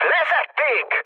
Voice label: aircraft destroyed, speech hun; War Thunder

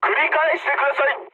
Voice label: jp chat; War Thunder

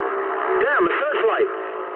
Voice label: eng searchlite mood med, speech eng; War Thunder

